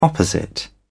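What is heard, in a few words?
"opposite", British English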